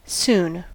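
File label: "soon", US English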